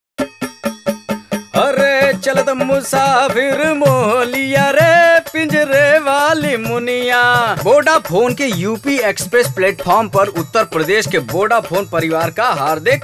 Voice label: Regional IVR Experience

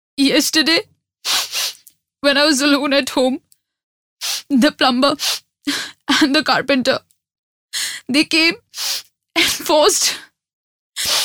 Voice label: Radio, Hindi; Bhuna; Publicis India; Sugar Mediaz

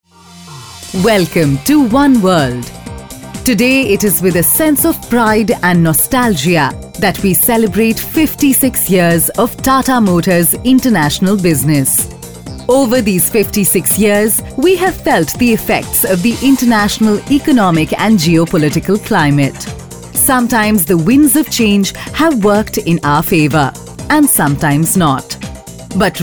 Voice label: Corporate AV English; Tata Motors

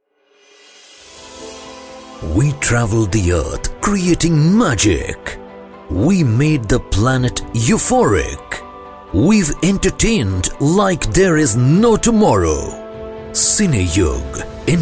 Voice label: AV English; Cineyug

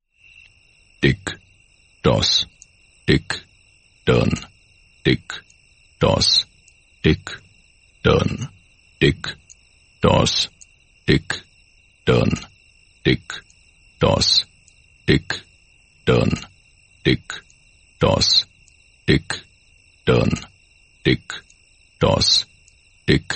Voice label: Sleep Aid Hindi; Publicis India; Sugar Mediaz

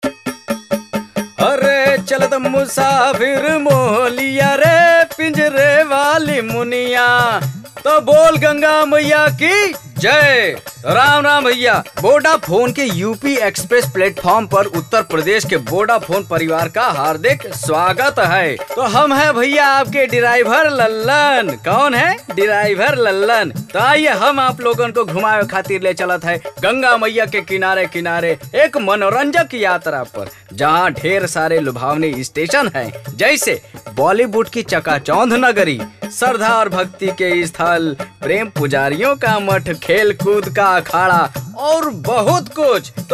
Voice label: IVR prompt; UP Express

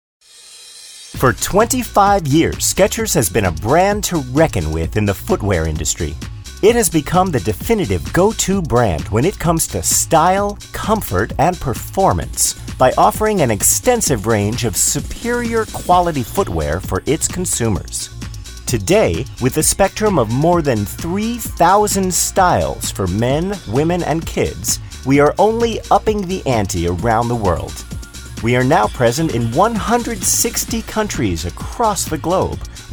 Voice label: Corporate AV English; Sketchers

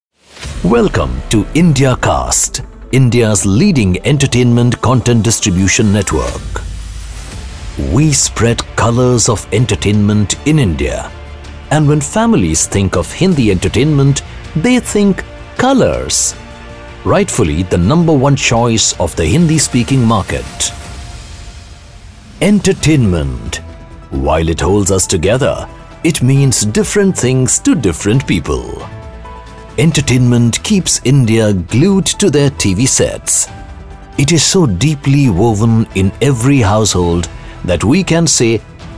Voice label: English voice over, music; Indiacast

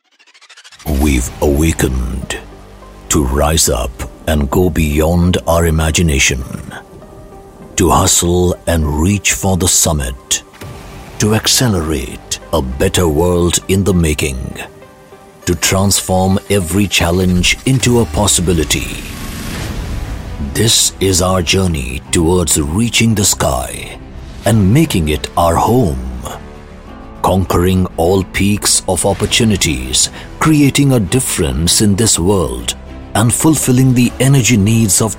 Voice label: Aviation English Voice over MUSIC; Indian Oil

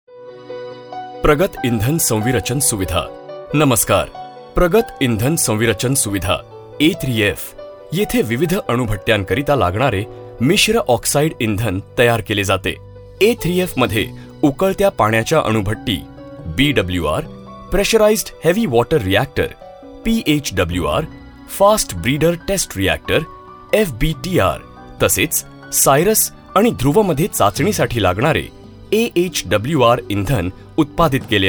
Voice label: Corporate AV Marathi; Advanced Fuel Fabrication Facility

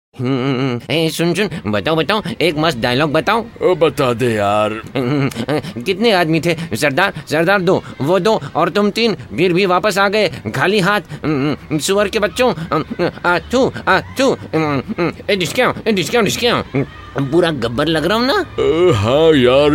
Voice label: Funny Radio spot All Voices; Coca-Cola

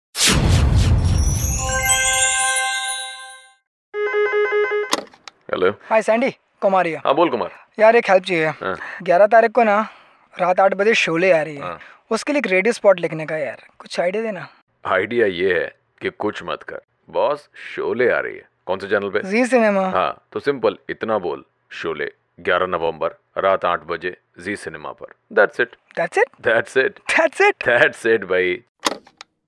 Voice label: Conversational Spot; Sholay

